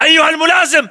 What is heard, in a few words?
rank lieutenant, localized english battlechatter